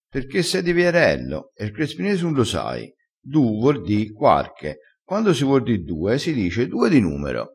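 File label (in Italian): "du", dialettale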